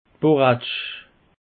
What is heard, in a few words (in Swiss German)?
"boratsch", alsace; peche